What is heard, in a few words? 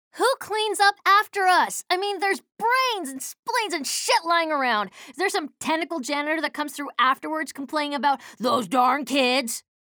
menu chatter; slash; frag